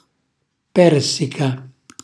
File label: "persica", Calabrese; cucina calabrese; Glossario